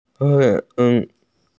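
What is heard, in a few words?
"ye2ye5", Chinese, F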